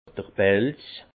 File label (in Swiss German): "nelk", alsace; vin; oeillet